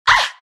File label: naga pain; dota; naga siren